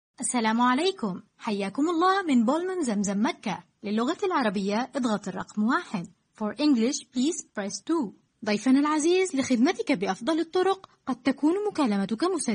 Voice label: 阿拉伯语女 外语 小语种 自然

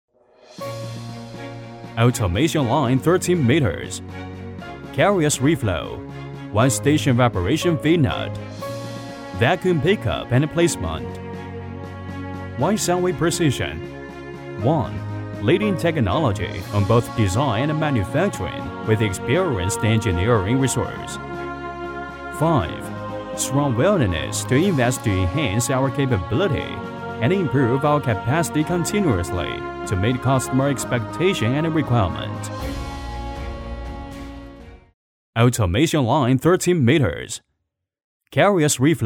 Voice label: 男英 外语 国内英语; SunwayPrecisionD1样音

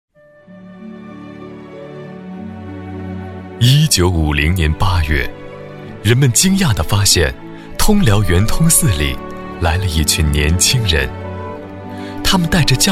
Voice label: 专题 医院 为生命护航 大气

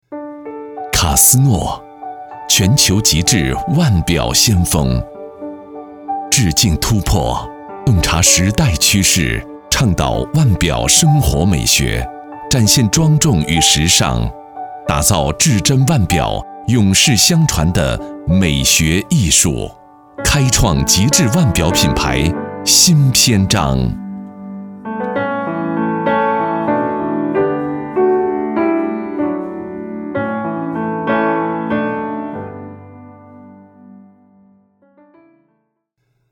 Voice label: 男国 专题 产品 磁性; 腕表

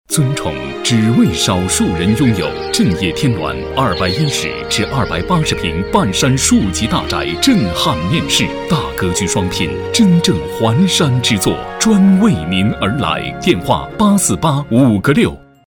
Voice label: 男国 广告 地产 浑厚; 振业天峦

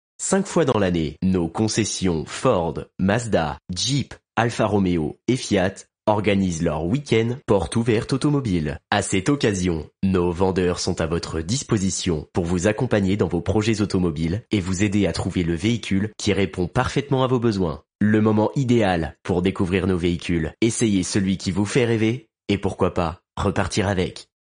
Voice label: 法语男, 外语, 小语种

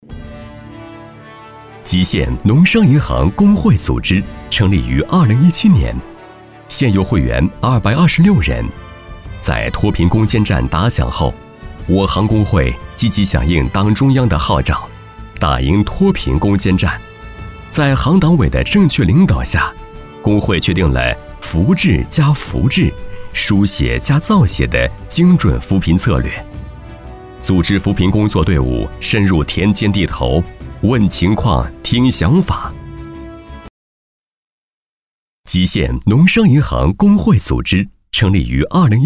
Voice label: 专题 银行 年轻, 男; 农商银行

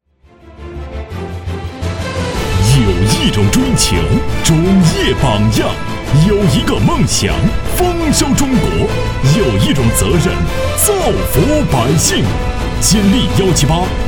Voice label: 男 广告 电购; 种子金粒

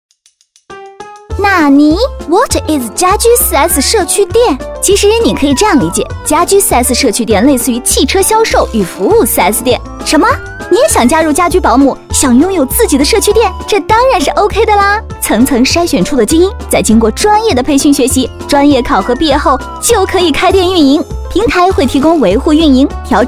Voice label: 女 宣传片 病毒; 神马是家具4S店

